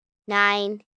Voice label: Numbers "Nine", 1 child, girl counting; Talking